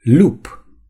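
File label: "wolf", Romanian; gcompris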